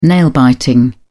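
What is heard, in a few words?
"nail biting", British English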